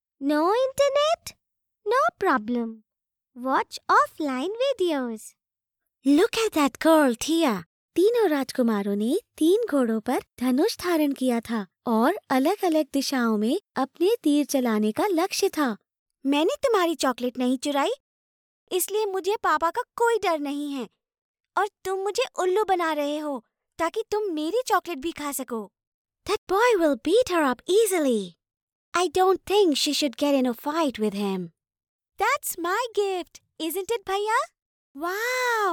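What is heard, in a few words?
Kids voice hindi English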